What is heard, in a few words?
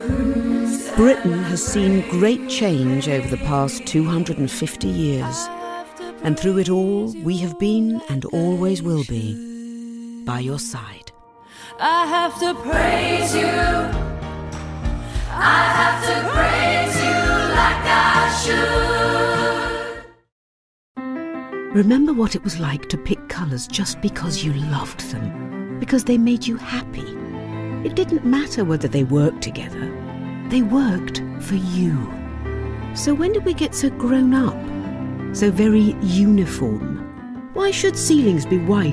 Commercial